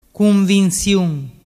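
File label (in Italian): "cunvinsiun", Vernazzese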